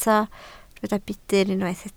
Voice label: "budr", Welsh

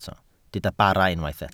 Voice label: "bara", Welsh

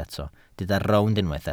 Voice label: "rownd", Welsh

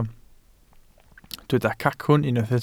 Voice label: "cacwn", Welsh

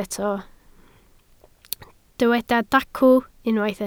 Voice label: "dacw", Welsh

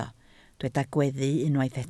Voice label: "gweddu", Welsh

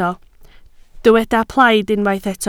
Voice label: "plaid", Welsh

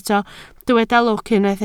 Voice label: "lwc", Welsh

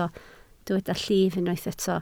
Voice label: "llif", Welsh

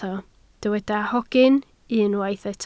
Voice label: "hogyn", Welsh

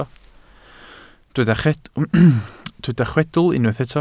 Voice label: "chwedl", Welsh

